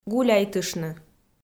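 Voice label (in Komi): "гуляйтыштны", Komi, female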